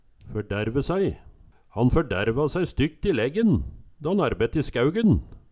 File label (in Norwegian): ferdærve sæi, Numedalsmål; Han ferdærvæ sæi stykt i leggen dan ærbette i skæugen